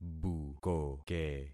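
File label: "bukoke", concatenated syllables; Affixing experiment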